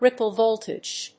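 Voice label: "ripple voltage", English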